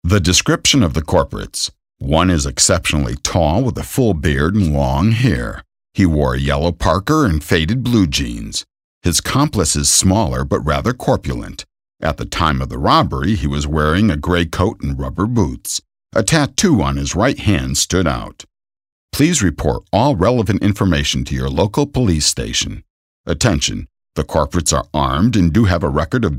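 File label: US English, Kommentar Neutral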